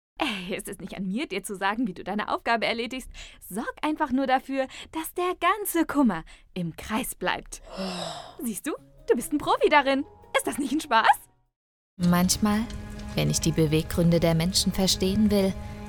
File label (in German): Hoerspiel; Phungi